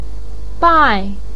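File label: "bai4", Mandarin Chinese, fourth tone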